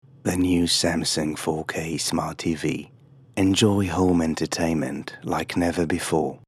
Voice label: english australian narration